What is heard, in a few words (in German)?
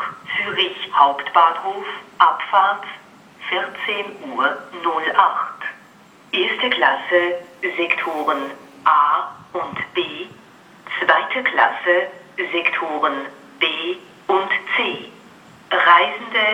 Einfahrt Goeschenen, Ansagen